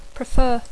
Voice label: "prefer", English